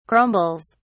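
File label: "crumbles", British English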